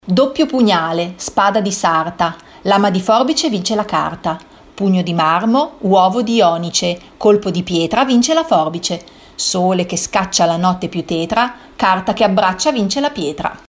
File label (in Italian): filastrocca morra cinese